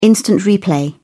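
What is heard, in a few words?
"instant replay", British English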